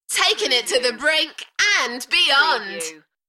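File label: UK female, Enthusiastic, DJ Vocal; beanstalk-audio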